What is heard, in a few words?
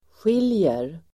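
"skiljer", Swedish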